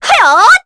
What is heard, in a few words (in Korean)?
Vox Casting, kr; Veronica; kingsraid; voices heroes